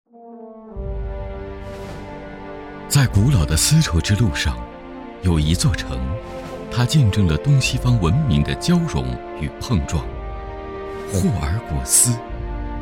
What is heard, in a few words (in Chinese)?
男国 城市 招商; 霍尔果斯